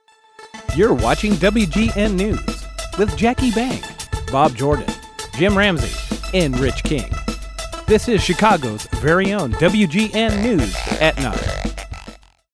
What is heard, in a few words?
News lead in